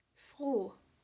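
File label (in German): "Froh", German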